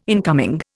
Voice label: "incoming", Indian